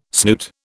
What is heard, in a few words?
"snoot", British